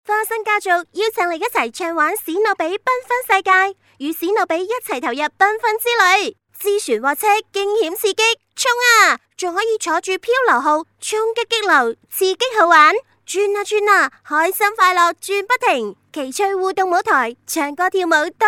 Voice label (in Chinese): 粤女 粤语配音; 史努比缤纷世界; guanggao